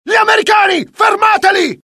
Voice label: alert, dialogue IT; mohaa; attack